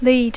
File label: "lead", English